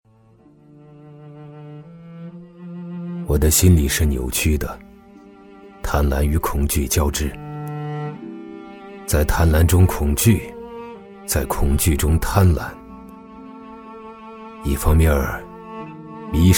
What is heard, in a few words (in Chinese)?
男 自述警示录 成熟低沉 自然素人; 中英双语 自然诉说; 贪官手书